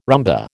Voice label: "rumba", American